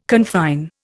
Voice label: "confine", Indian